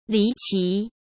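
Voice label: "离奇", Mandarin Chinese; chinese-flashcards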